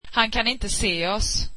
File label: swedish he cannot see us